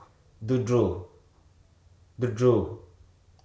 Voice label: "jolt", Hruso

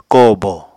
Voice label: "kóò bo", Apatani; [kóò bo] n. nephew

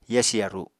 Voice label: "yasi yaru", Apatani

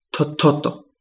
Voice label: Kapingamarangi bleed

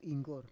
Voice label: "inggor", Roon

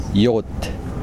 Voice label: "rock", Kosraean

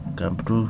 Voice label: "dog", Wahgi; lae unitech

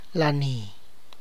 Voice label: "party", tlacochahuaya

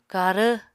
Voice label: "karv", Apatani

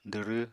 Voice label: "dwrw", apatani

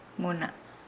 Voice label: Nukuoro

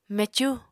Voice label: "mecú", Apatani

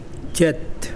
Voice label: salt, Mokilese

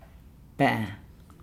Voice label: "father", Nukuoro

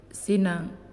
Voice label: "Seed", Naasioi

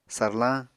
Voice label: "sarlañ", Apatani

